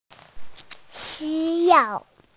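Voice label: "need", Mandarin, hearing-impaired; xu1yao4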